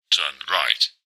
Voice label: sat nav male voice english says turn right

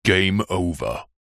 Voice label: human male voice says game over

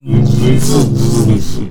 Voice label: Alien Scientist; little robot sound factory

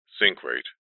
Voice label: aircraft plane cockpit voice says "sink rate"

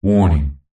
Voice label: science fiction computer voice says warning; zapsplat

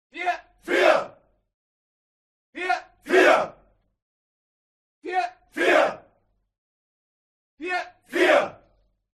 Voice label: HUMAN CROWD Military Shout German Four; smartsound

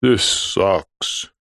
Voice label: human male deep voice english says this sucks; zapsplat

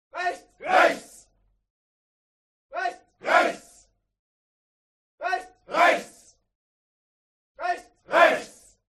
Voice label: HUMAN CROWD Military Shout German "Right"; smartsound

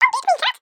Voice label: cartoon mouse says "don't eat me cat"

